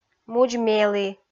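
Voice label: "мудз-мелі" Komi, female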